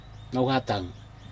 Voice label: "nohatog", sky universe, Aneityum

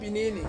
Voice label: "pinini", Ansus

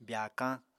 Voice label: "byaakañ", Apatani

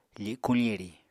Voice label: "fikuñ firi", apatani

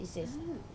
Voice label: "sesa" cough, Wamesa

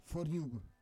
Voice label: "for yuv", Roon

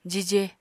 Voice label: "jije", Apatani

